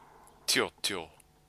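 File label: "tiotio", Māori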